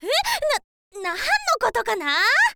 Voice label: line girl; voice

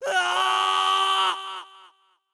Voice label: wizard death

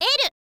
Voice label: "l", info girl voice

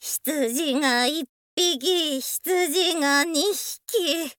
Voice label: thief boy faint

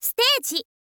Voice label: "stage", girl; voice; info girl

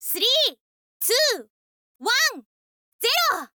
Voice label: countdown, girl

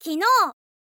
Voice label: "kinou", info girl voice

